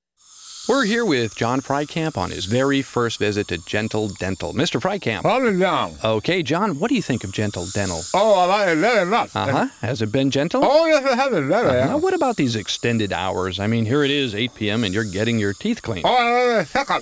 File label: radio; Gentle Dentle